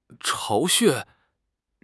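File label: "巢穴", Chinese; HSK6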